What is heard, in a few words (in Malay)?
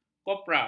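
"kopra", Malay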